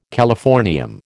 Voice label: "californium", American